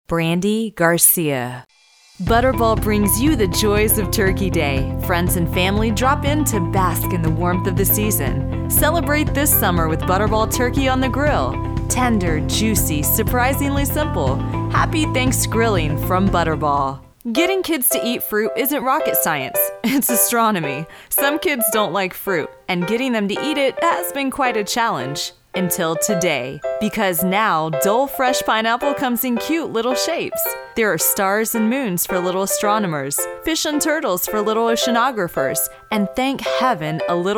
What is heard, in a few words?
Commercial